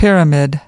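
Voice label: "pyramid", US English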